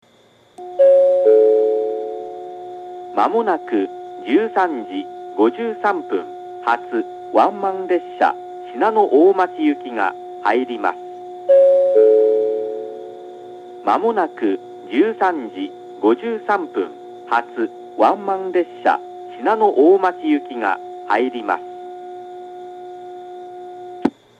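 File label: platform 2 down approaching advance notice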